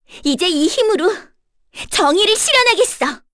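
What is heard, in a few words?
vox korean; voices; heroes; Cecilia; kingsraid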